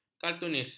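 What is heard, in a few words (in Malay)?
"kartunis", Malay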